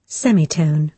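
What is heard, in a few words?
"semitone", British English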